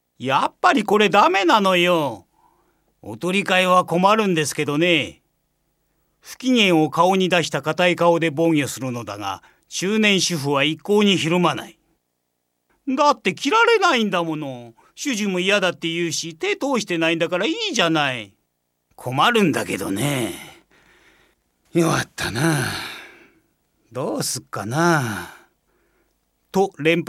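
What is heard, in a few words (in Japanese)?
朗読, ボイスサンプル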